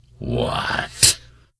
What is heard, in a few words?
Death Knight Male Low Greeting